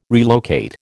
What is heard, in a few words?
"relocate", American